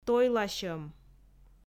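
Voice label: "тойласьӧм", Komi, female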